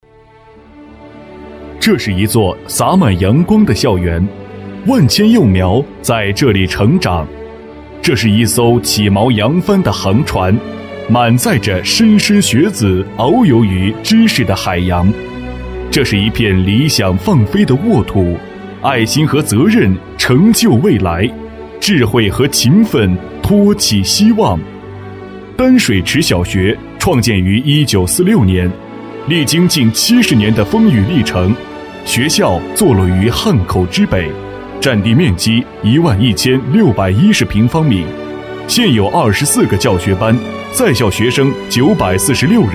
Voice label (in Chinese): 男 学校专题; 丹水池小学